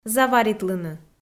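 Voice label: "заваритлыны", Komi, female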